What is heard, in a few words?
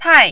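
"pai", Mandarin, fourth tone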